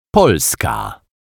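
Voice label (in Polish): "polska", Polish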